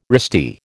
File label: "wristy", American